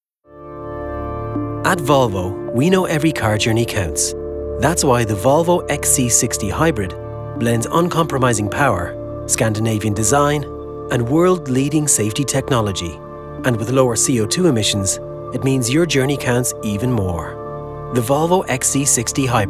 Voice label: Comercial